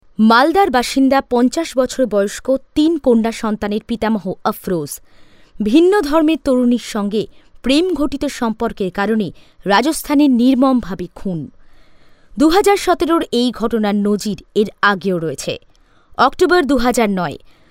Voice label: Documentary Sample

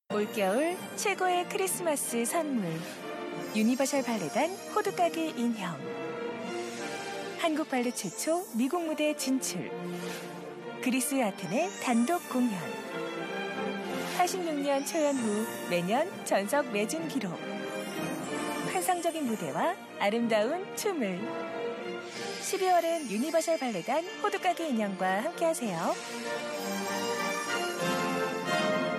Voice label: Narration

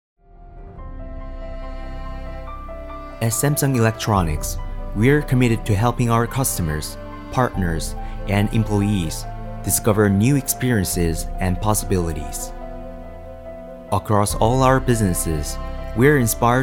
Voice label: Commercial

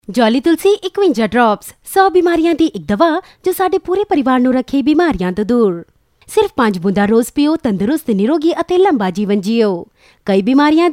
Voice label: Commercial

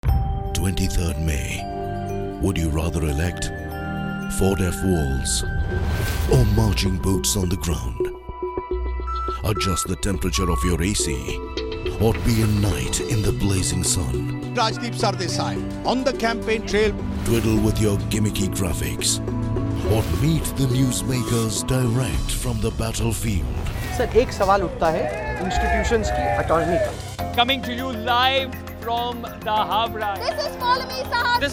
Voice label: Narration

